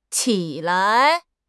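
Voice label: "qi3 lai5", Chinese; HSK3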